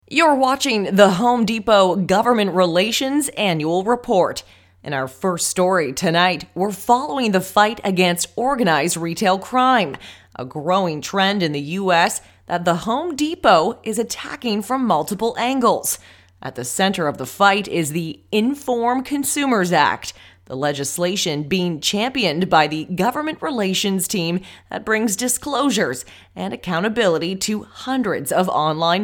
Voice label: female English neutral North American accent news anchor; voice; english canadian